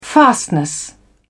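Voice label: "fastness", British English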